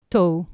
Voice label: "to", Anglo Saxon